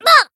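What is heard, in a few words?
Voice damaged Japanese; kid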